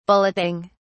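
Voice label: "bulleting", British English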